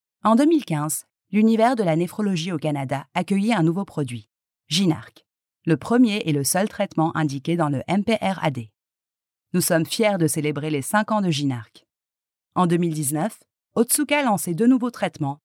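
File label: French, France, female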